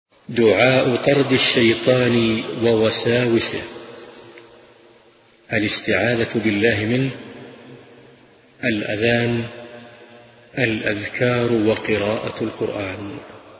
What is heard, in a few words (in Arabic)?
دعاء طرد الشيطان و وساوسه